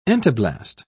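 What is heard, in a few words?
"entoblast", English, British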